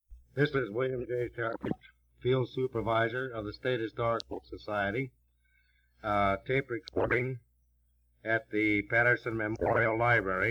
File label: Interview with old timers; WildRoseLibrary; recorded history; 1957; noise reduction